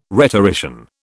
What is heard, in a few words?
"rhetorician", British